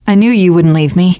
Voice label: to the rescue thanks, teen girl; player; survivor; voice

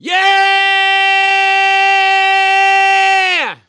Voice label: battlecry, survivor voice; gambler

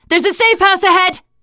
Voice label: safe spot ahead, teen girl; player; survivor; voice

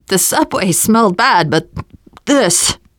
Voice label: world hospital, teengirl, voice; player; survivor

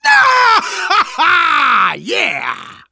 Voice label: taunt, survivor voice

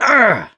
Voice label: hurt minor, survivor voice mechanic

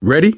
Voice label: ask ready, survivor voice manager; Left 4 Dead 2; left4dead2 dlc3

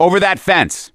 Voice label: misc directional, voice; gambler

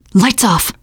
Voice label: kill that light, teen girl; player; survivor; voice

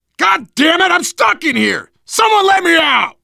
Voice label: call for rescue, survivor voice biker; Left 4 Dead 2; left4dead2 dlc3

